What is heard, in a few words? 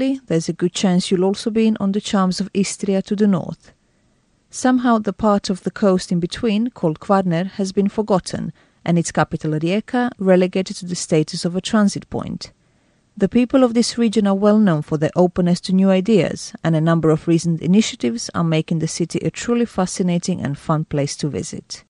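Serbian Croatian, English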